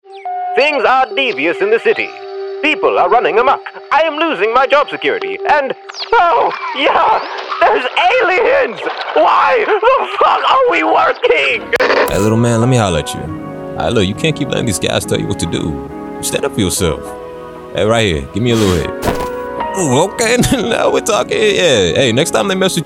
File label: Animation